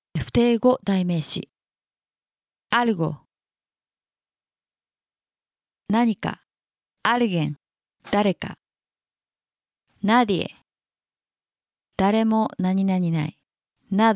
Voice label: Spanish basic word; basic spanish 2019